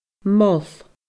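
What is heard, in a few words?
"mol", Scottish Gaelic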